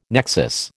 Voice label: "nexus", American